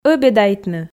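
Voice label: "ӧбедайтны", Komi, female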